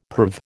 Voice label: "perv", American

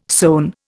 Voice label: "sewn", Indian

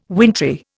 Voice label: "wintry", Indian

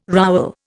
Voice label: "rowel", Indian

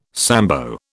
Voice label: "Sambo", British

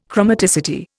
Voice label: "chromaticity", Indian

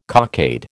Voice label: "cockade", American

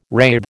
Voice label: "rathe", American

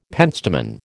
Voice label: "pentstemon", American

